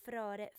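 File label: "frore", female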